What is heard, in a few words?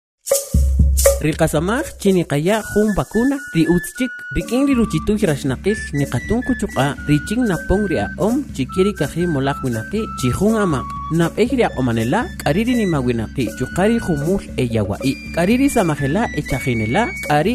Kaqchikel Spot; IGSS; covid-19